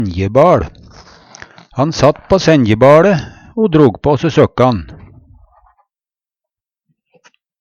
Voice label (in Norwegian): senjebaL, Numedalsmål; .Han sat på senjebaLe o drog på se søkkan.